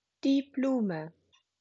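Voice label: "Blume", German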